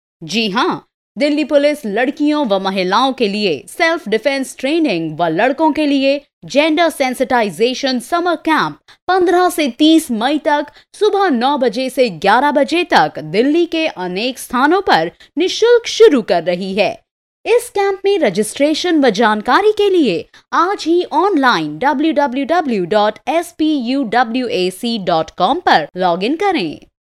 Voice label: Female

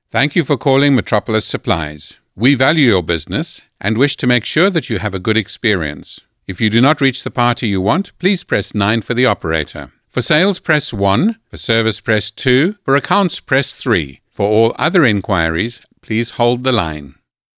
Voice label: IVR

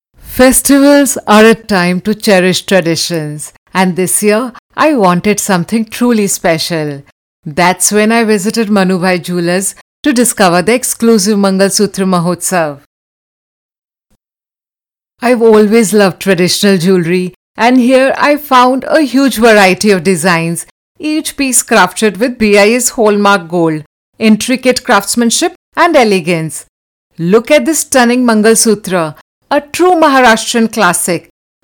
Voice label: English

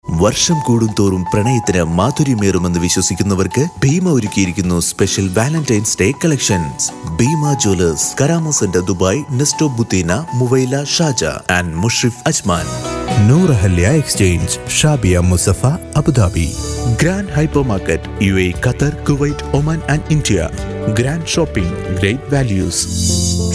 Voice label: malayalam vo

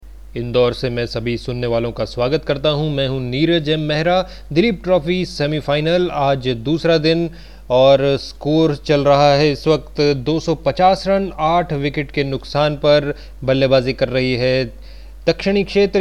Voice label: cricket commentary

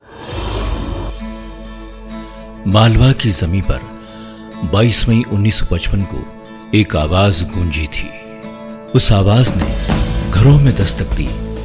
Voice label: docu hindi

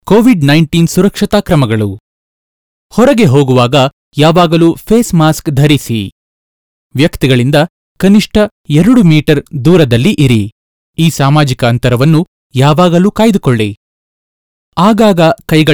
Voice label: Announcement Kannada

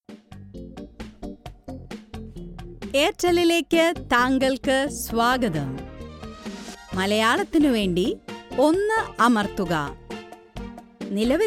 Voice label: ivr malayalam

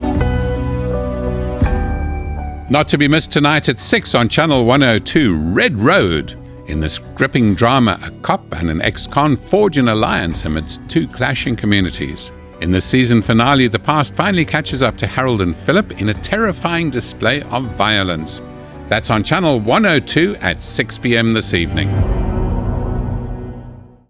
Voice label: movie trailer; red road

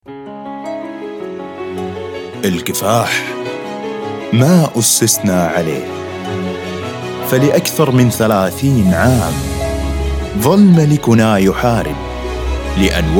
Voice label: Saudi

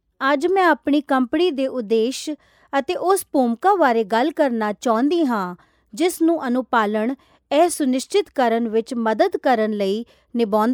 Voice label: Punjabi; Walmart

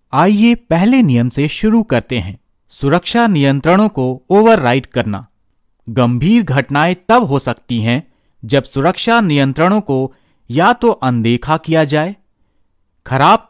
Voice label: safety hindi

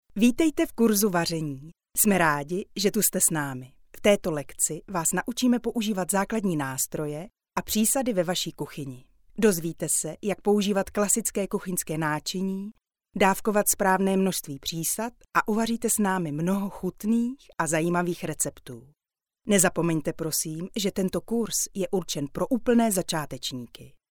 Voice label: female Czech

